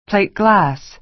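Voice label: "plate glass", American English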